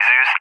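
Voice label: "Zeus (ZU-23-4)", English, USA; AirDefence; DCS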